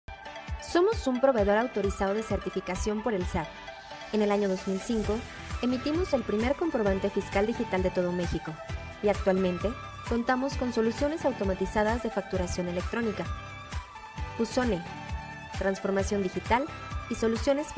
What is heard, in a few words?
Spanish (LA), Female